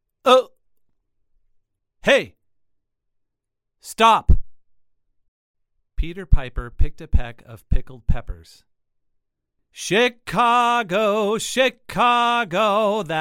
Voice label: cardioid 3 inches male

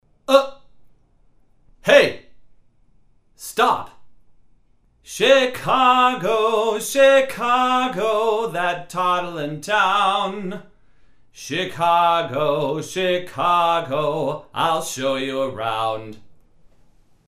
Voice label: Before Male

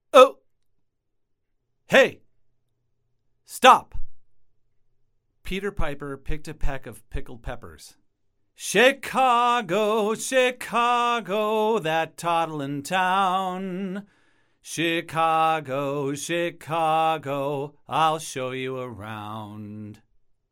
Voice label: omni 3 inches male

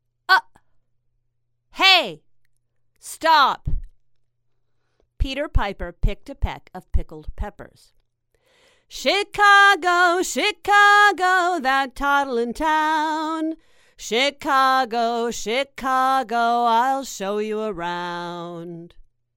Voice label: Every Other cardioid 3 inches female

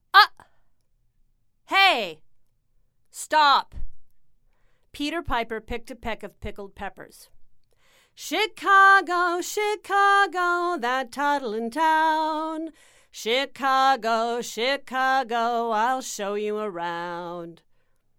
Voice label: After omni 3 inches female

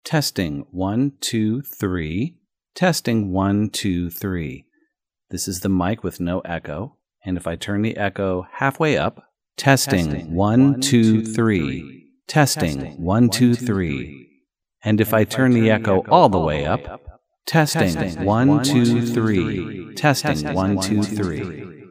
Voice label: Audio With Reverb; Maono

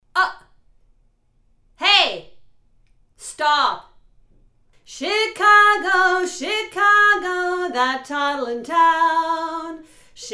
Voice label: Before Female

